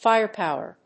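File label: "firepower", English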